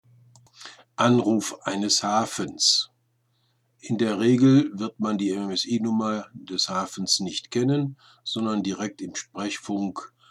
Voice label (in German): Liegeplatz, Funk Seefunk; Enkhuisen Marina; Sailingyacht Möve; routine; short range certificate sprechfunkbeispiele nach imo vorgabe